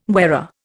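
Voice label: "moiré", Indian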